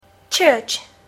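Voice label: "church", English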